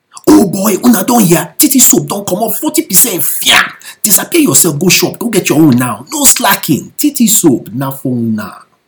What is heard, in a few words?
PIGIN ENGLISH